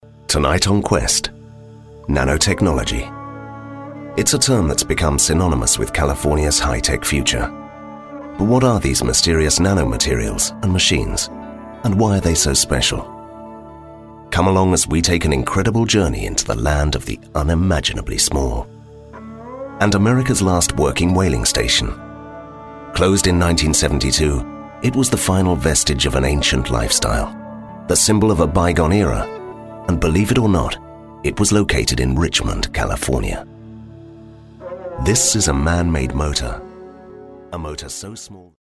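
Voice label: British, male, voiceovers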